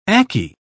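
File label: "akee", British English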